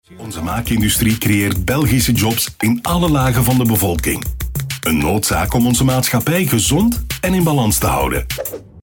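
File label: Flemish, male, explainer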